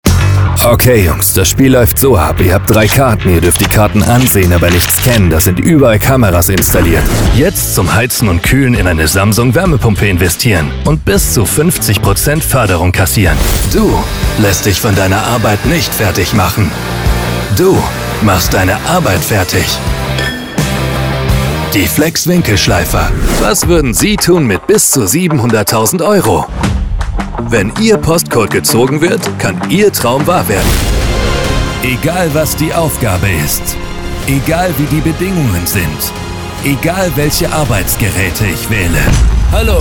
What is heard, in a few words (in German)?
sprachdemo medley; zetacom